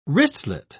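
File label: "wristlet", English, British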